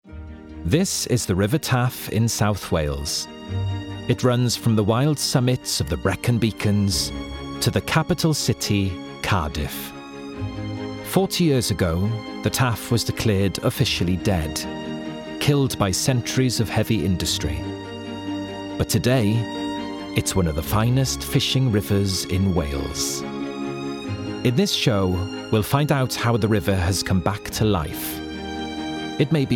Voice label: doco

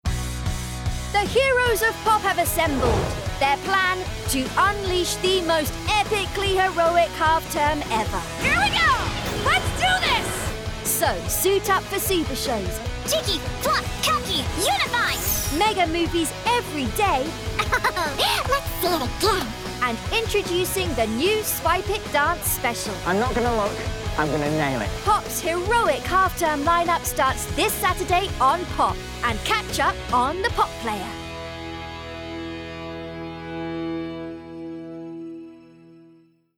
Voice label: Pop Promo

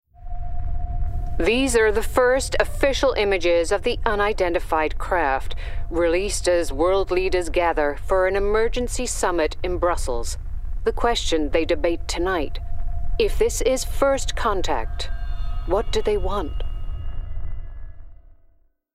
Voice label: Gaming Newsreader US; CNN

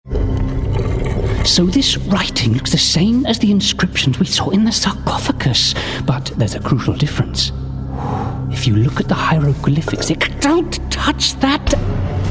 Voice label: Gaming Character Voicereel; Gaming/Character Showreel

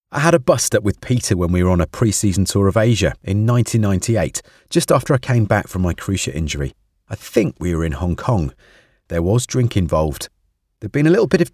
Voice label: Audiobook Sample

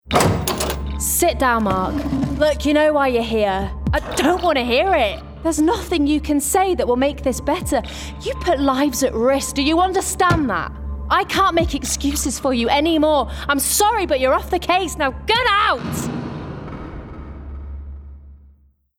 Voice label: Gaming Character Detective Natural

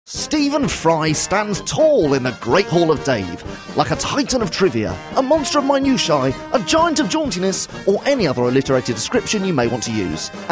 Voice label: PROMO; QI

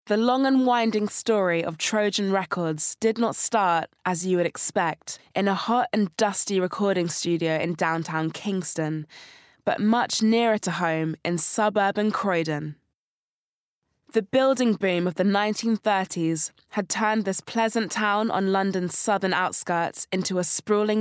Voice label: Bookread

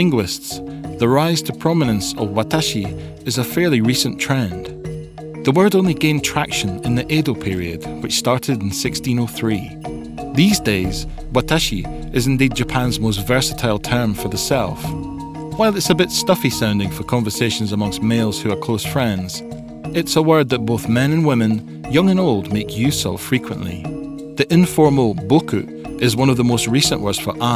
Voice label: Japanese Documentary

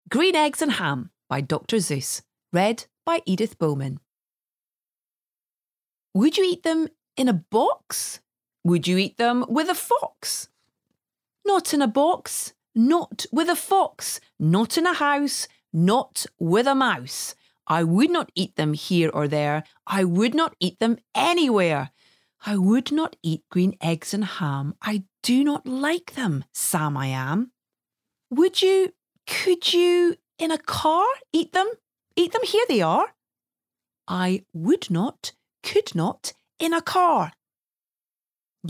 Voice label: Bookread; Green Eggs & Ham by Dr Seuss

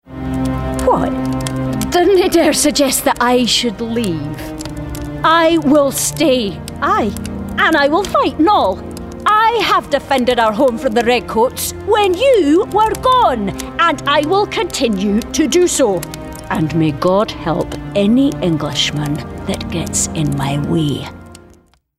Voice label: Gaming Strong Woman Scottish; Gaming Character – Strong Woman Scottish

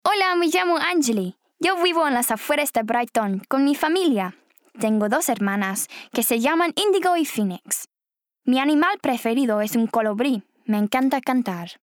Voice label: Spanish Intro

